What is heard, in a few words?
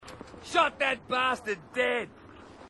marine, aussie; h2dialogue